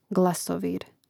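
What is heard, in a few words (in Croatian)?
"glasovir", Hrvatski; mreznik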